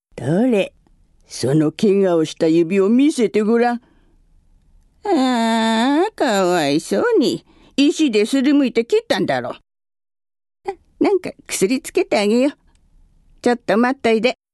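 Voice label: old woman, female voice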